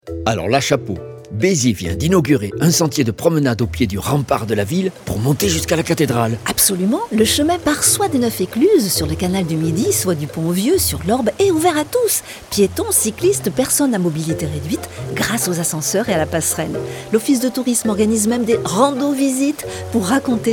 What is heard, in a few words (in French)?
spot; beziers pont vieux; toulouse fm